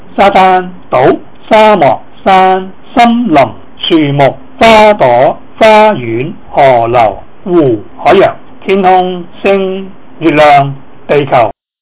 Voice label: cantonese nature

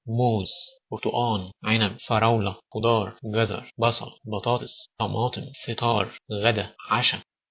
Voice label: egyptian; food

